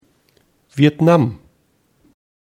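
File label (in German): "Vietnam", German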